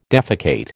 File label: "defecate", American